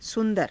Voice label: "su^dara", hindi

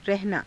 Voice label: "rahanaa", Hindi; [自動]あり/い続ける，住む; रहना

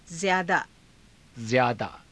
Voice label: "zyaadaa", Hindi

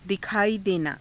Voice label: "dikhaaii denaa", Hindi

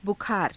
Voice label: "bukhaara", Hindi

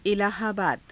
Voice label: "ilaahaabaada", Hindi; इलाहाबाद; [男]アラーハーバード

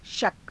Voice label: "shaka", Hindi

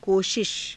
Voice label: "koshisha", Hindi

